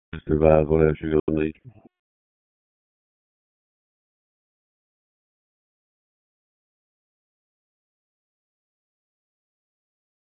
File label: FIRE OPS, p25; wake